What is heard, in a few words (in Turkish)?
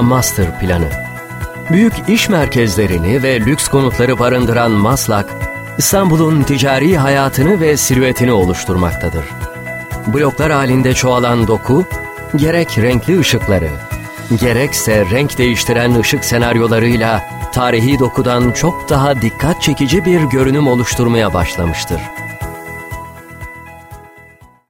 belgesel